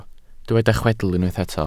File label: "chwedl", Welsh